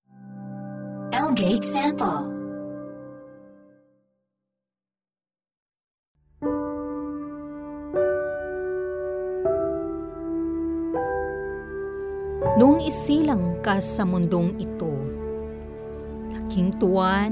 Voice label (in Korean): 필리핀 여 차분한 톤